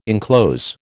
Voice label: "inclose", American

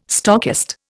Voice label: "stockist", Indian

